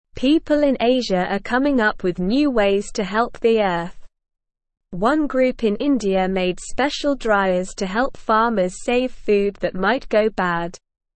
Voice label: English Lower Intermediate SLOW Reading; People in Asia Helping Fix the Earth; People in Asia are coming up with new ways to help the Earth. One group in India made spec; English Newsroom